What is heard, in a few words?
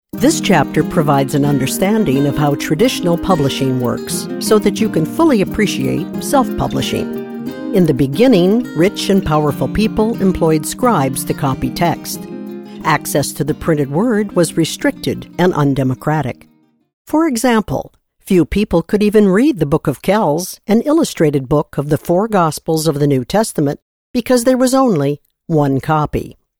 elearning